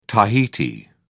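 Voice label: "tahiti", British English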